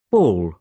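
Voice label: "ball", British English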